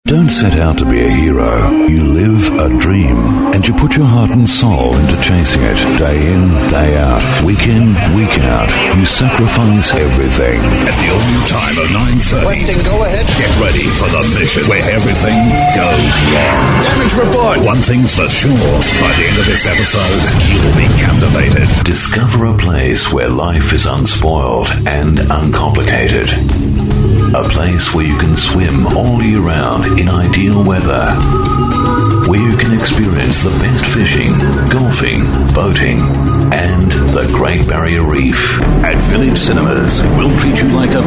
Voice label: male talent retail